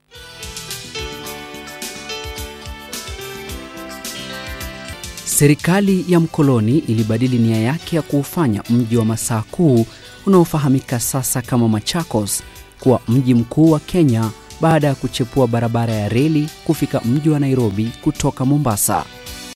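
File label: Swahili, Commercial; GoLocalise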